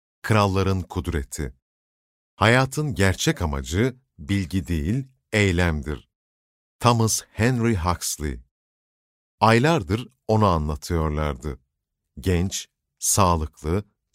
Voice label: turkish audiobooks